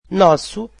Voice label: portuguese our

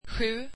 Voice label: swedish seven

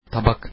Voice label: plate, turkish

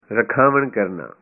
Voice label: reservation, punjabi

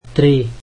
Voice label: slovak three

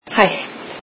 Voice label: icelandic slowly

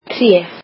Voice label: "tree", Icelandic

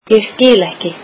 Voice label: icelandic I dont understand

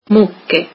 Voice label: cows, italian

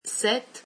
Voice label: "seven", French

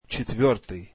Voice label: russian fourth

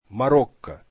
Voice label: russian Morocco

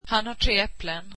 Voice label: swedish he has three apples

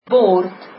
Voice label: plate, afrikaans

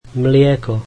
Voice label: slovak milk

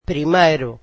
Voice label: "first", Portuguese

